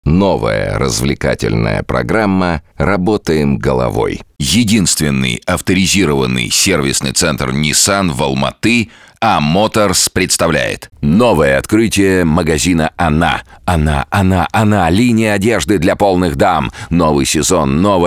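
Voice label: Russian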